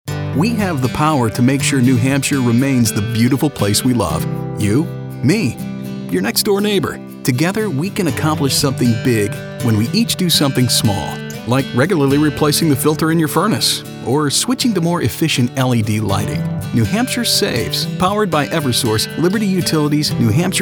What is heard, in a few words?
Radio Spot; NHSaves Brand